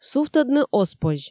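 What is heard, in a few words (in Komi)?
сувтӧдны оз позь, Komi, female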